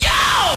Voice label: "yo" shout

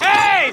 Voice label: "hey", Voice

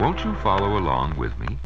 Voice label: won't you follow along with me male; questions

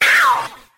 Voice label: ow male

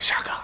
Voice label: "shaka", voice; ooh ahh

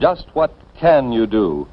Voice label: "just what can you do", male; questions

